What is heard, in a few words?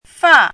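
"fà", Chinese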